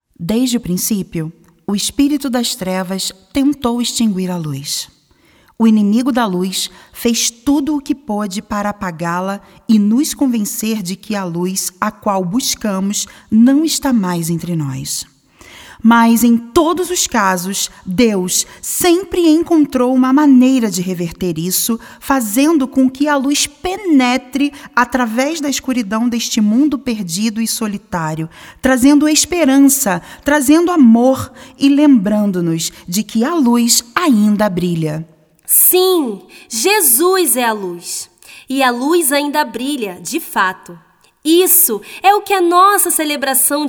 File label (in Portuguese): Narracao; A Luz Ainda Brilha